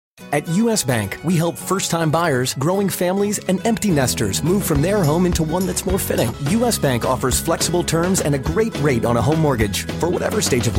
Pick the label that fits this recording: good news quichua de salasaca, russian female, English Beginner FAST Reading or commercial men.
commercial men